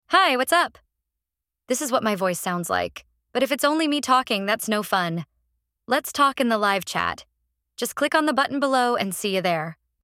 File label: Stimmprofil EN weiblich; Red Bull